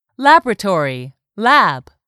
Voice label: "laboratory lab", English; 3000 words